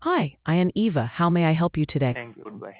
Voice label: conversation